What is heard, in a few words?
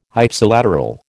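"Ipsilateral", American English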